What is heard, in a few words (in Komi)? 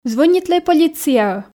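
"звӧнитлӧй полицияӧ", Komi, female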